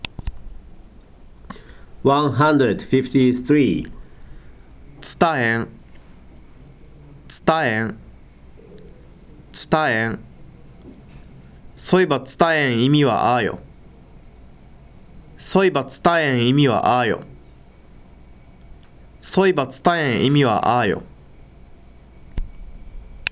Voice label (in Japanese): "tsutaeN", hogen